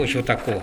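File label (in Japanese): "yotako", 南山形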